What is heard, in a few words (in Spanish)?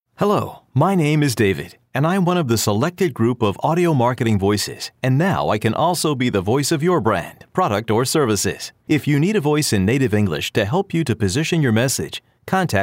Locutor americano; eeuu